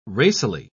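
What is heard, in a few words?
"racily", British English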